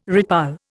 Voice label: "redpoll", Indian